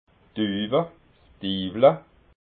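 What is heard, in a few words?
"duwa", Alsatian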